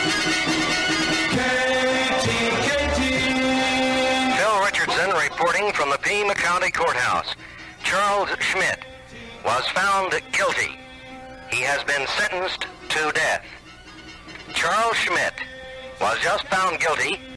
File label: Radio DJ; KTKT Radio Tucson AZ; March 1966